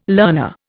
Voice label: "learner", Indian